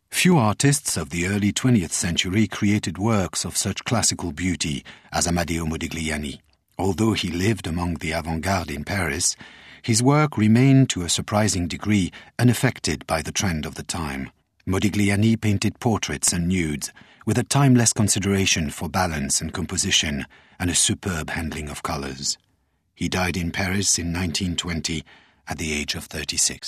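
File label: French, English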